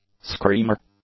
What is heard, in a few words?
"screamer", English; nouns